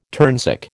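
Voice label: "turnsick", American English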